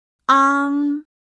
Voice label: "ang", pinyin; PinyinChart